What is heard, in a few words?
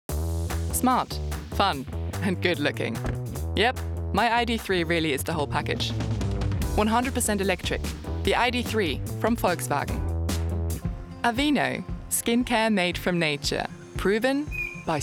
Commercial